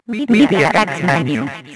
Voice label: comm voice